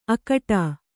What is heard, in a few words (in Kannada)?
"ಅಕಟಾ", Kannada